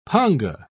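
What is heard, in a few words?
"panga", British English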